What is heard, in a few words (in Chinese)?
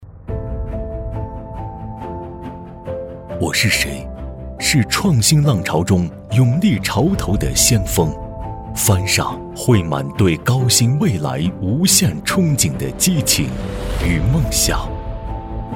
男 宣传片; 走心企业形象片样音; 《新in蓝》-检察院形象宣传片